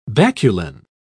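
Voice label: "baculine", British English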